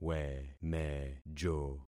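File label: "wemejo", concatenated syllables; Affixing experiment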